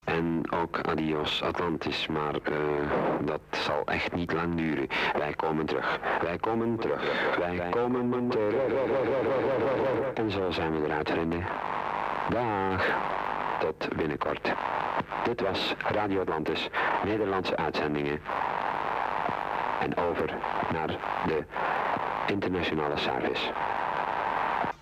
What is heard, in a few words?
close of Flemish Service; Radio Atlantis; Flemish Service 25 August 1974